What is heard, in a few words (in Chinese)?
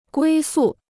"归宿", Chinese